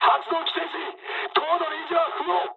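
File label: Japanese damaged engine mood high; War Thunder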